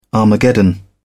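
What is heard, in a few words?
"armageddon", British English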